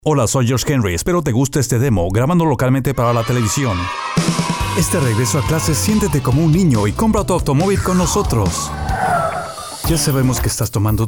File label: Male back2school